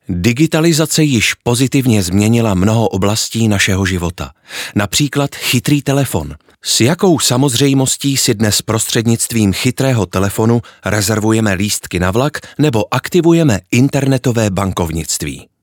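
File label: Czech, male, narration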